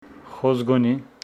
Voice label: Homshetsi oak